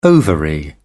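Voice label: "ovary", British English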